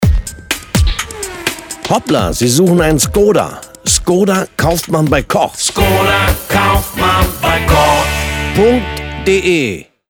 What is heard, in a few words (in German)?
Radiospot; Skoda